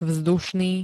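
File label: "vzdušný", Slovak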